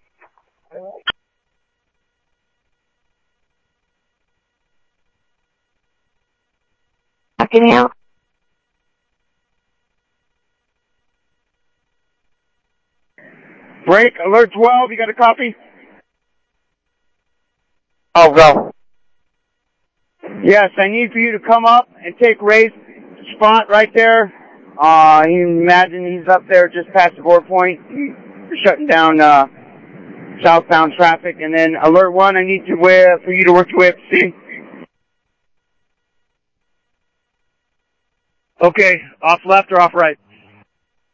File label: Sheriff, scanner; MCSO - EAST Sheriff-EastCnty; ThompsonPeakScot